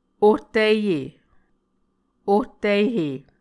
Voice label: "ohtihe", Muscogee